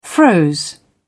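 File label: "froze", British English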